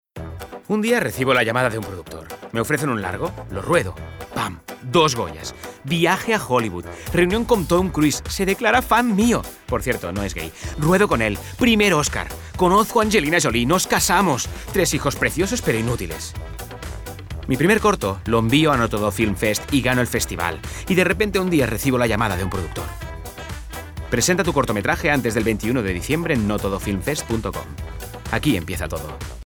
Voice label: male spanish comercial; ideasonora